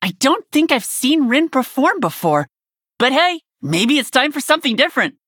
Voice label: warrior nonbinary night elf; Pre Mission8